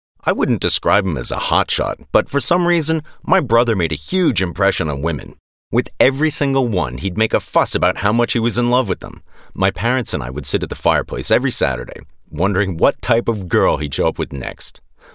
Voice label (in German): Eng US Dynamisch